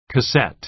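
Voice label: "cassette" American English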